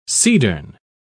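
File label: "cedarn", British English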